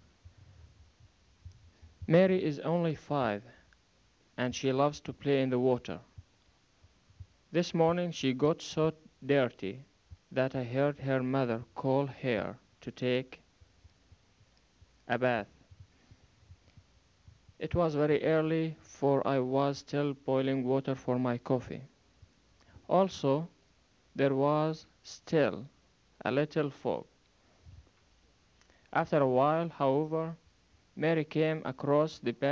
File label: Arabic Jordan